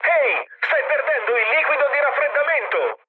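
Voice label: Italian damaged water mood med, speech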